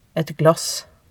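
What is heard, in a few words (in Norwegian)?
"et glass", Norwegian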